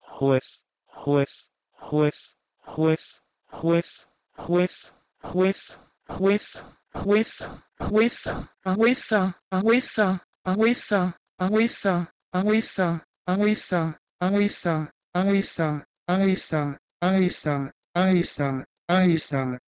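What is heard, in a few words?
Proto-Indo-European h2wes to Ancient Greek aesa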